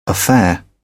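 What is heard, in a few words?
"affair", English, uk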